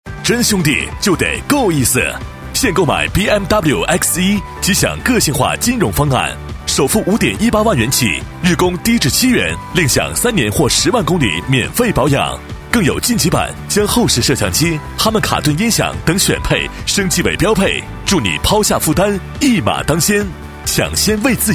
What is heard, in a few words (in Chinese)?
男 广告, 国语男声配音; BMW-X1形象片; 交广主播